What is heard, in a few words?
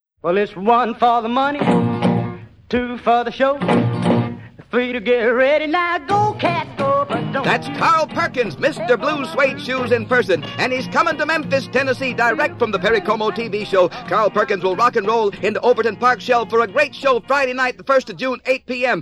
Advertisement; Overton Park Shell Concert